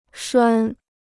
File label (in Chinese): "拴", Chinese